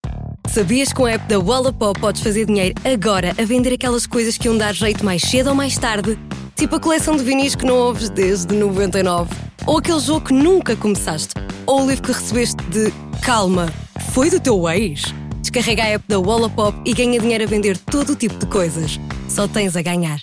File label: Spot